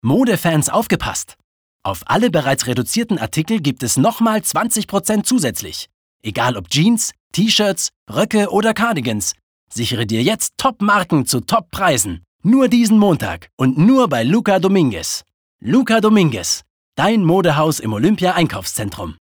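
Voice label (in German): advert, Dynamisch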